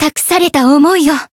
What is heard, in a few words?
voice jp; tsukiha